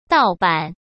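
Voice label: "盗版" Mandarin Chinese; hsk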